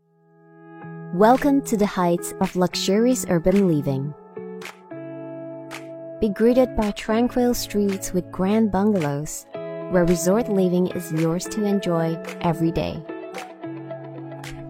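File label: ENGLISH - COMMERCIAL Friendly, Approachable, Soft, Calm; ENGLISH - COMMERCIAL (Impiana Heights) Friendly, Approachable, Soft, Calm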